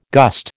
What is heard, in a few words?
"gust", American